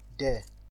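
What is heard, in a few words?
"dere", Nigerian Pidgin, male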